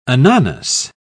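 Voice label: "ananas", British English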